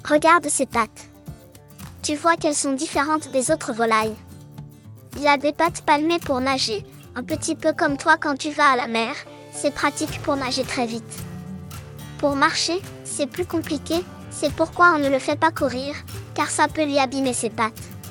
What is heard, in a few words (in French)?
canard enfant, explication; ranch du moulin st martin